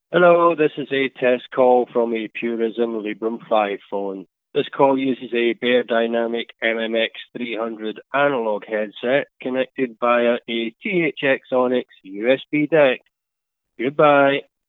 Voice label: Test Message, Beyerdynamic MMX 300 analog headset with THX Onyx USB DAC; Librem 5